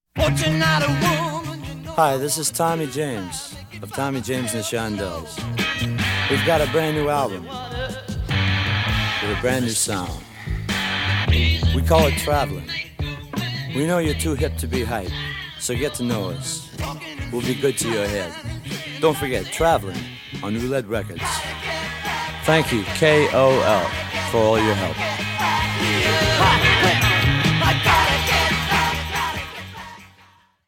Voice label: Radio Commercials; 1970

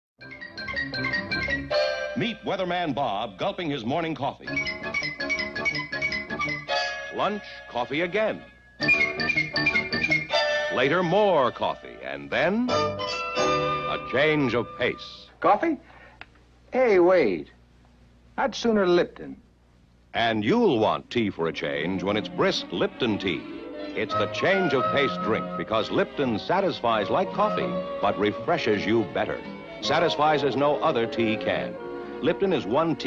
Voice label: COMMERCIAL; 1964; Lipton TEA; WEATHERMAN

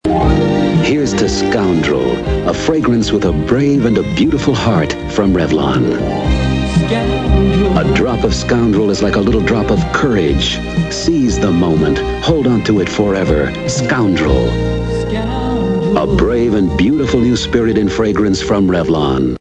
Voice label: Radio Ad; Motor City Radio Flashbacks; Revlon Scoundrel Fragrance; 1985

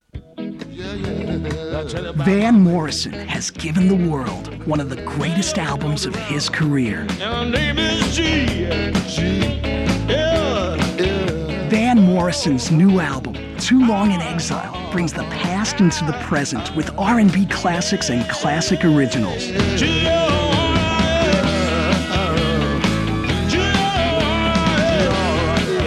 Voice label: Radio Commercials

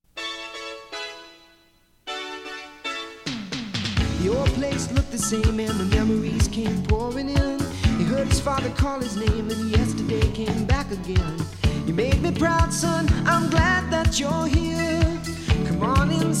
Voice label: Radio Commercials Beer; Pabst Blue Ribbon

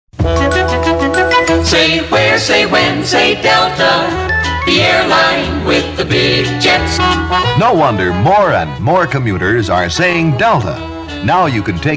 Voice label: Radio Commercial; Delta Airlines

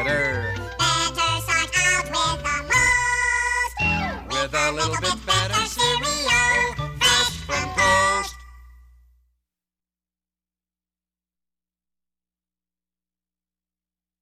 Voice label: Radio Commercials Cereal; Post

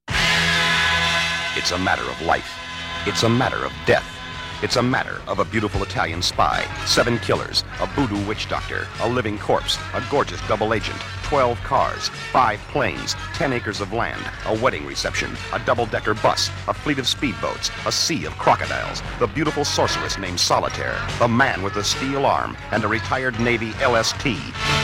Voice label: Radio Commercials; James Bond 007

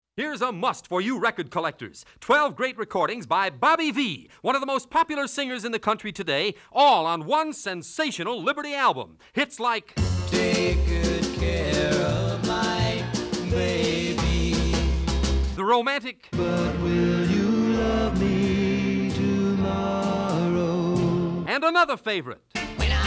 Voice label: Radio Spot

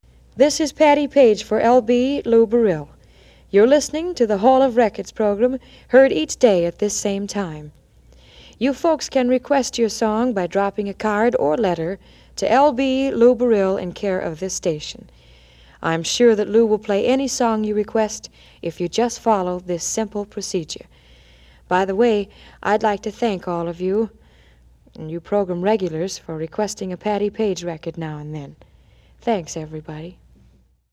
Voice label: Radio Commercials Radio Liner Tag

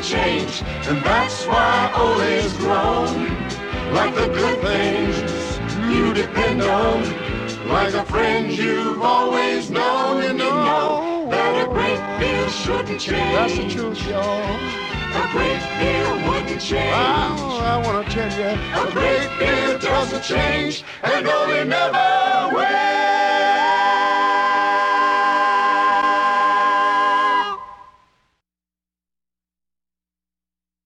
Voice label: Radio Commercials Beer; Olympia

